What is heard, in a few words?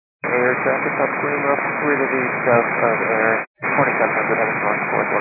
122.925 MHz; scanner radio